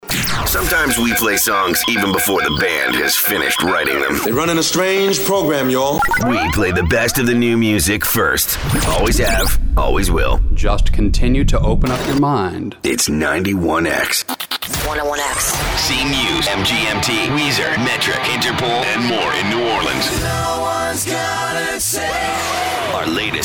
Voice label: Radio Imaging ROCK